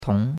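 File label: "tong2", Mandarin Chinese; Cours de Chinois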